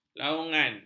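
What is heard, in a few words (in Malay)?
"laungan", Malay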